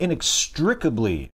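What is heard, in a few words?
"inextricably", English; pronunciations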